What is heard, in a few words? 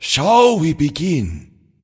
vox intro; smite; hebo